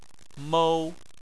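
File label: "mou", Chinese, first tone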